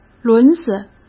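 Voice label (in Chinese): "轮子", Mandarin Chinese; chinese flashcards; hsk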